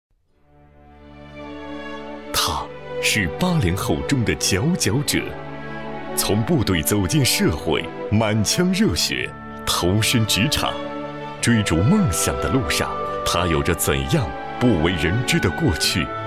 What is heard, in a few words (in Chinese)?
男-专题-人物栏目